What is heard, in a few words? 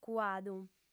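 "cuadu", female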